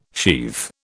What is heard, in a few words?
"sheathe", British